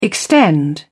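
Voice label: "extend", British English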